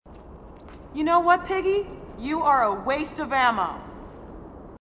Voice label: female marine, sassy